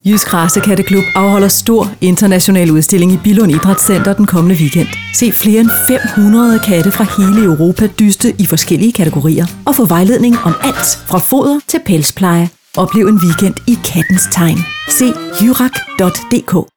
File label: Radiospot; Billund